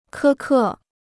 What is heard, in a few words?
"苛刻", Chinese